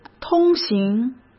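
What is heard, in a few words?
"通行", Mandarin Chinese; chinese flashcards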